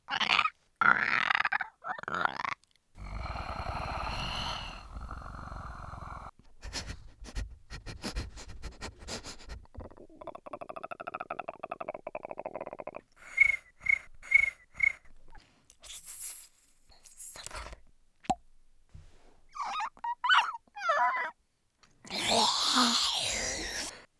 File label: creature